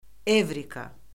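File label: "evrika", Azerbaijani; Orfoqrafiya Lüğəti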